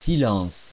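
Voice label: "silence", French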